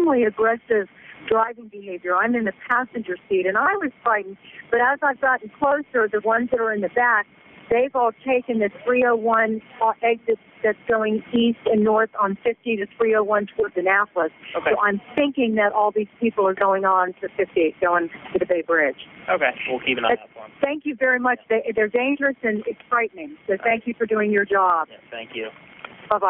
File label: 911 call